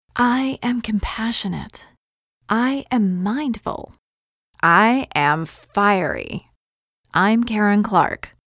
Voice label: women